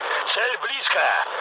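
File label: near waypoint, mood med, Russian; War Thunder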